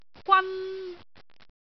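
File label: "gwan1", Cantonese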